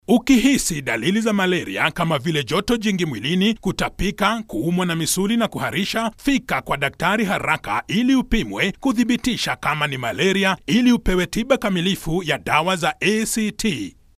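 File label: Swahili general; GoLocalise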